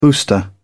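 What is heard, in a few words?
"booster", British English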